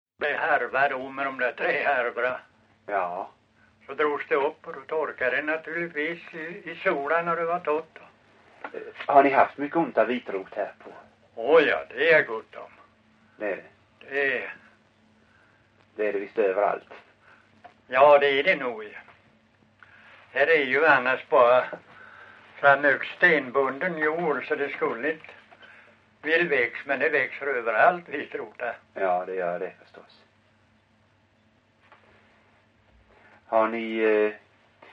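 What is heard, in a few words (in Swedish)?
Långlöt Småland, dialekter; Folkminnen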